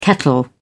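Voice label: "kettle", British English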